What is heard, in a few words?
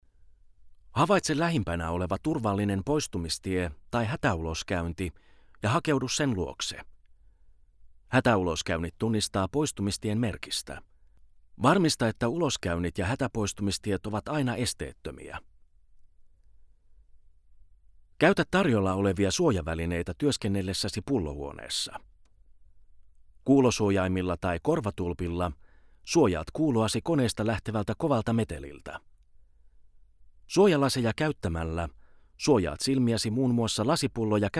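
finnish male narration; voice talents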